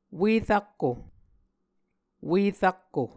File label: "ue-rakko", muscogee; Mvskoke Words with Repeated Consonants; Martin, Jack B., and Margaret McKane Mauldin. 2023. A Dictionary of Creek/Muskogee